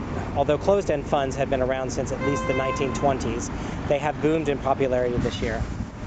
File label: real noisy